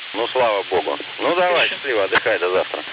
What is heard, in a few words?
norm speech